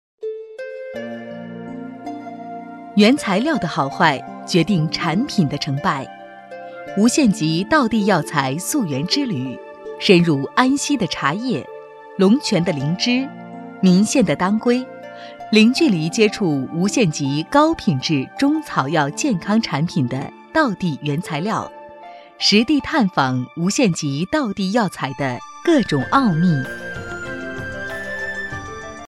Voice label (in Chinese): 女 专题 选料宣传片; 无限极